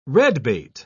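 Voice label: "redbait", English, British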